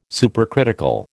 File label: "supercritical", American